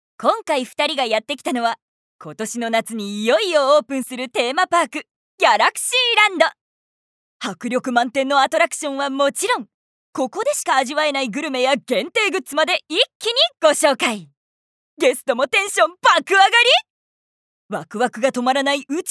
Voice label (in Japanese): ナレーション